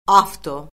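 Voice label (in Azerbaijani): "avto", Azerbaijani; Orfoqrafiya Lüğəti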